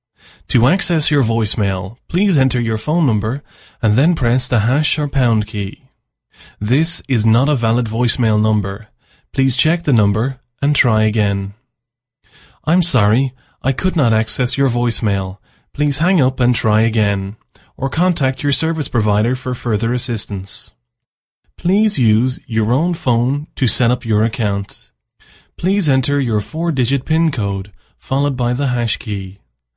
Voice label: Irish IVR